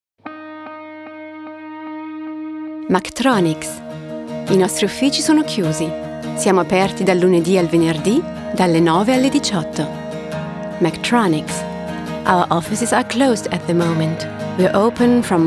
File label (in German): Anrufbeantworter Italienisch Englisch